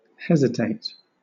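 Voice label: "hesitate", British English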